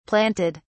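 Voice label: "planted", British English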